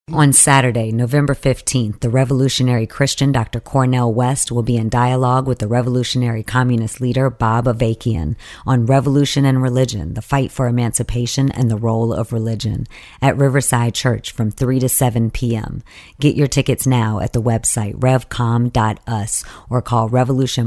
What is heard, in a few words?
dialogue, no music